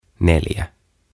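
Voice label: "Neljä", Finnish; Four; OTAVA KONSERNI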